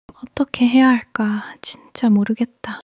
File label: sadness